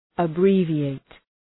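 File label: "abbreviate", English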